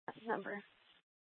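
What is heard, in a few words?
Police Department Dispatch, scanner; Mesa PD; 3 Red Mnt Dispatch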